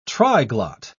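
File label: "triglot", British English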